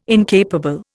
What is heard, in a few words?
"incapable", Indian